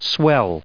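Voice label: "swell", US English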